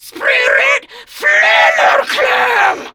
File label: Attack, Female